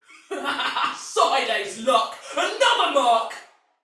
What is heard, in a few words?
See Player Shout, Female, Soldier; Dialog; Barks; Battle; Sinecure